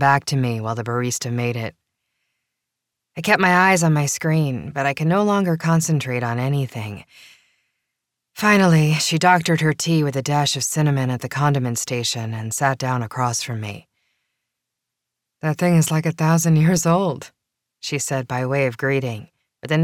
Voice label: Voice Over Talent 1st Person Fiction Dialogue